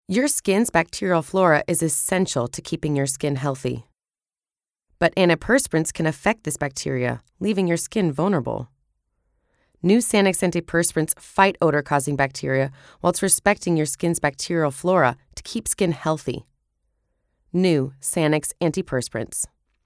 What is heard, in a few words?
female american english skin comercial